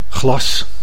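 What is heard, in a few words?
"glas", Dutch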